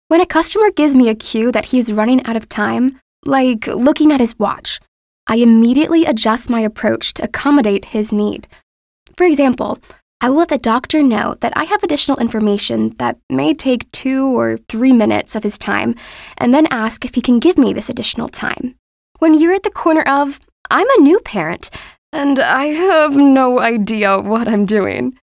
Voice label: 20s Female Montage Samples